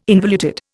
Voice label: "involuted", Indian

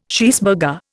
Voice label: "cheeseburger", Indian

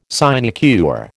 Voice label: "sinecure", American

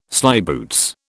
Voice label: "slyboots", British

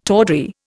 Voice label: "tawdry", Indian

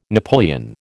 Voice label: "napoleon", American

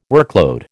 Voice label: "workload", American

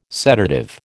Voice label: "sedative", American

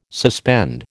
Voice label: "suspend", American

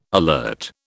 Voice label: "alert", British

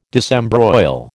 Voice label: "disembroil", American

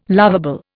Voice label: "loveable", Indian

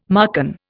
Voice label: "Marcan", Indian

